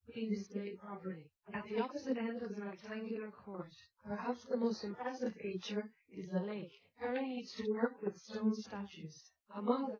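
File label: female speech; SiSEC10; underdetermined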